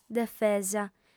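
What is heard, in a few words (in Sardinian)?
"defesa", female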